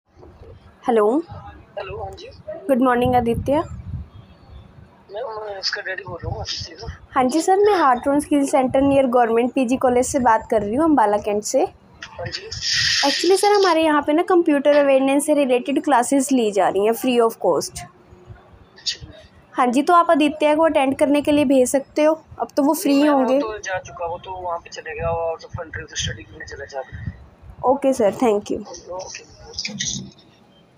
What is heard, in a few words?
call recording